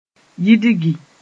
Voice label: "yidgi", mos-en; undo